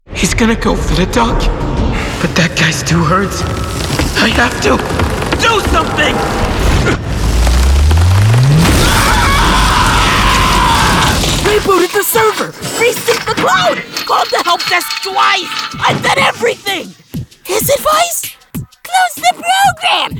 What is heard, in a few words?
Animation; Inglewood, United States